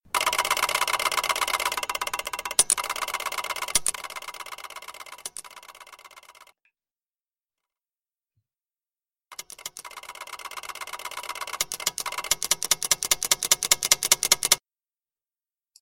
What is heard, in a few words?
News model